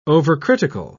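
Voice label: "overcritical", British English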